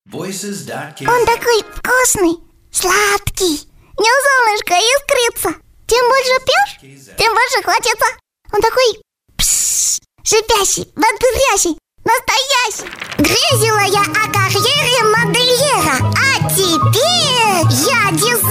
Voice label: ru parody